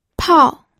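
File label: "pao", Mandarin Chinese, fourth tone; pinyin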